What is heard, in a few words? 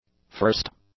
"first", english; adjectives